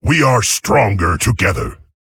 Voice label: Male Tauren Hunting Party